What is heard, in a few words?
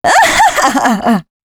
female c# 138, Dance Ultra Voice; Mutekki Media